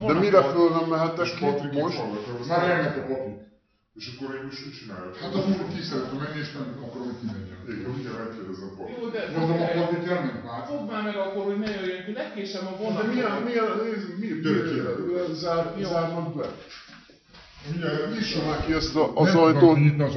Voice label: ajto male pitch; rendorkapitanysag ajto